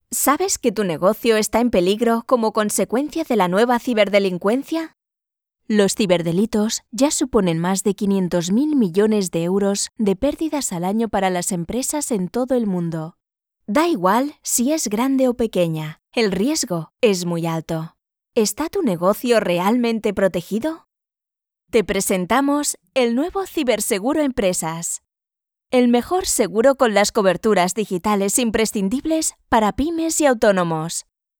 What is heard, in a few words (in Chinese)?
西班牙语 女 干音 叙述